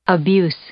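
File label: "abuse", English; noun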